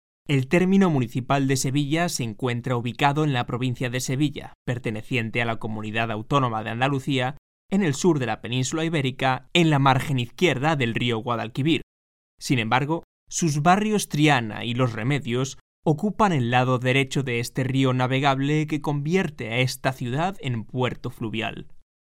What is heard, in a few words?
locutor spanish voiceover